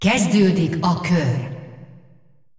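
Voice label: announcer female tournaments round start; hu hu; Clash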